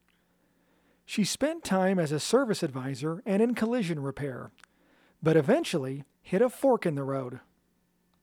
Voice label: Mechanic Shop Femme VO Scratch